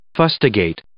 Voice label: "fustigate", English